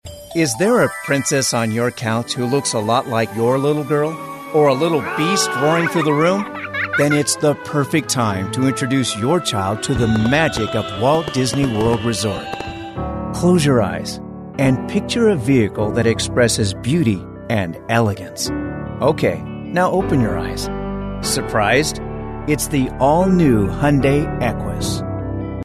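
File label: American English, male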